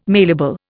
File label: "mailable", Indian